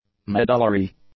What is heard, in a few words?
"medullary", english; adjectives